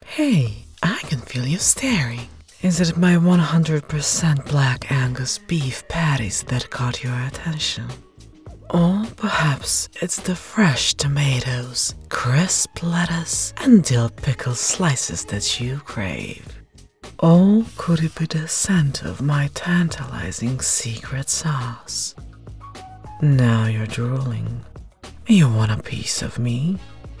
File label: burger commercial